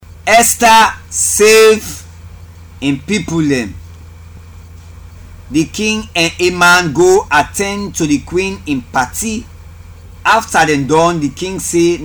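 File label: Krio Sierra Leone; Africa; Stories; ESTHERS SAVES HER PEOPLE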